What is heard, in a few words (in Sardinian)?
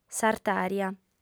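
"s'artària", female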